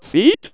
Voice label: Windows Feed Discovered, DECtalk; Aksel Christoffersen; DECtalk Sounds For Windows